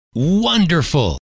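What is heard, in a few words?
"wonderful", male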